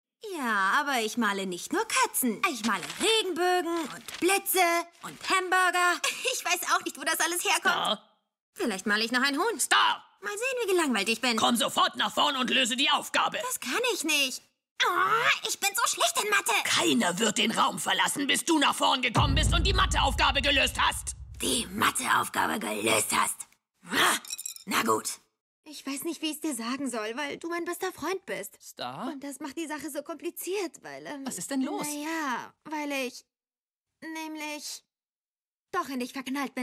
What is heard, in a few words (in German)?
Zeichentrick